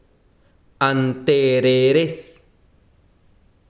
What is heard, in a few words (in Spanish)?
"tripa", besiro, chiquitano